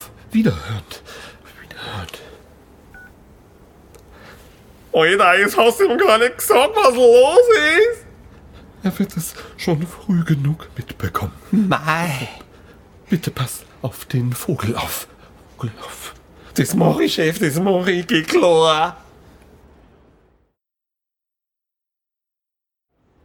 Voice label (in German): Hoerspiel